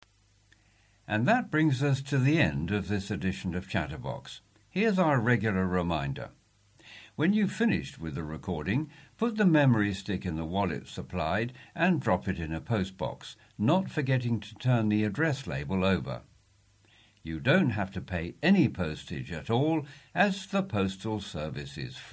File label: Closing Announcement; chatterbox norwich evening news; 19th january 2023 chatterbox podcast 2205